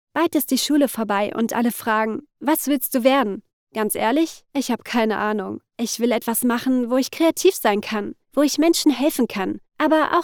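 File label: German, female, natural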